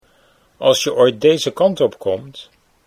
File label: als je komt, Dutch